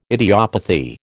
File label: "idiopathy", American